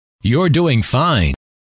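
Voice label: you Re Doing Fine, male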